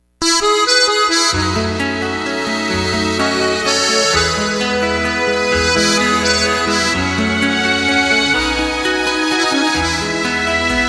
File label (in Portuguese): ROM SOU GRATA AO CARINHO E DEDICACAO MASC